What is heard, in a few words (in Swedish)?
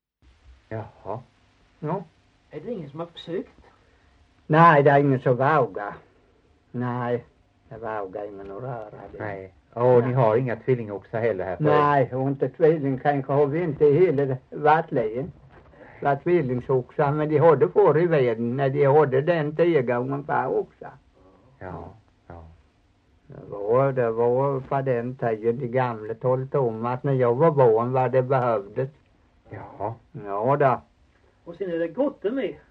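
Torhamn Blekinge, dialekter; Folkminnen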